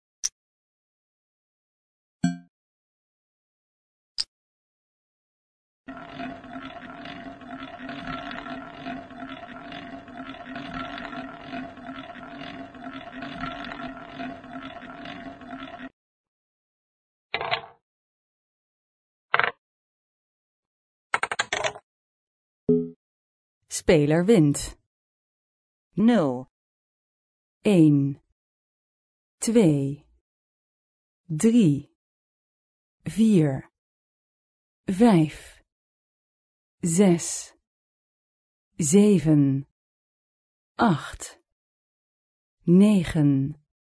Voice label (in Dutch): American Roulette, nl; American Roulette Gold